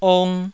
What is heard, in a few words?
"ong2", Taiwanese